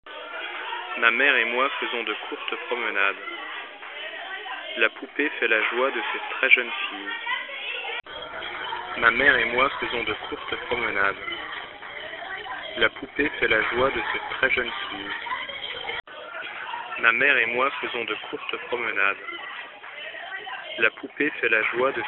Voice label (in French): cantine MIC GSM transcodage